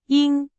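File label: Chinese, vocabulary; hsk4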